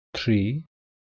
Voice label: "tri", Welsh